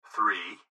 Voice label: Gps Voice, Male

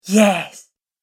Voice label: "Yes", Creepy Female Voice; Recorded Voice

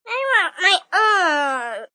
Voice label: Kids, Male, child, whine; Talking Sound Effects; I Want My Own

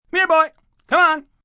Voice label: Here Boy Come M, male; Calls, attention; Talking Sound Effects; Sound Effects:Voice; VoiceBox

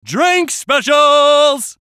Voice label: VOICE COMICAL; Drink Specials; Radio Voice; Blastwave

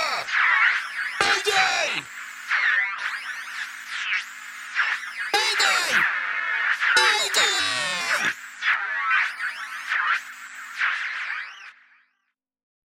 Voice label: Mayday distress call from radio with radio noise; Radio Voices (Sound Effects:Voice)

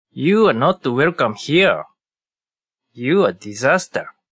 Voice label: Not Welcome Here, Attitude, Male; VoiceBox

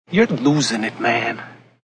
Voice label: You're Losing It Man, Male; Talking Sound Effects; VoiceBox; Twistedtracks

